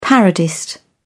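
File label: "parodist", British English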